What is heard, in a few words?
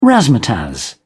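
"razzmatazz", British English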